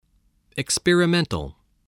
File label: "experimental", voice